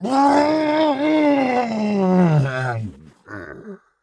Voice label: Male Worgen Death

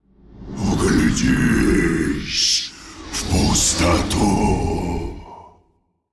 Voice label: Male Faceless Play; Hearthstone; датамайн обновления 5.0

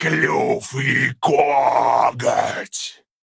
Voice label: Male Arakkoa Attack

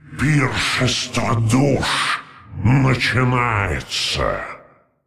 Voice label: Male Qiraji Play; Hearthstone; датамайн обновления 5.0

